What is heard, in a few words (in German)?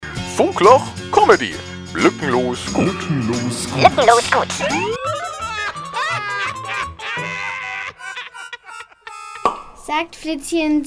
erzählt Witz